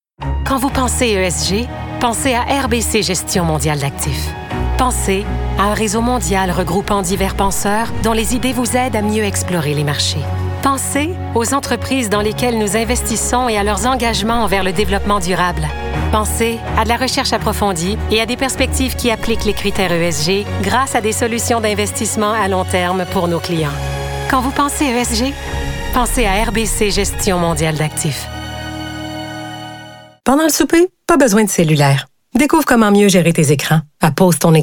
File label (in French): ANNONCEUR